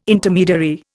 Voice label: "Intermediary", Indian

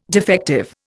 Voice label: "defective", Indian

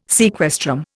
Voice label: "sequestrum", Indian

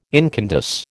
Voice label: "incandesce", American

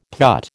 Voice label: "putt", American

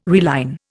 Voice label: "reline", Indian

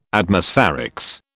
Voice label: "Atmospherics", British

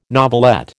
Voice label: "novelette", American

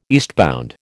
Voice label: "eastbound", American

